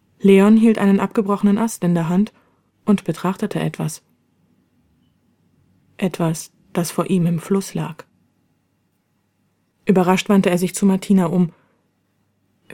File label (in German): Hoerbuch Erzaehlerin mit Dialogen duester Sprecherin